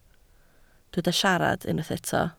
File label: "siarad", Welsh